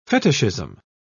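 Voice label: "fetishism", English, British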